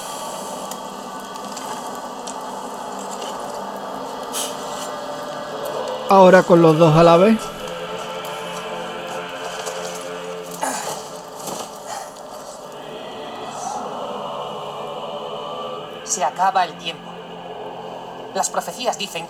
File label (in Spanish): MSI Immerse GV60 Bidireccional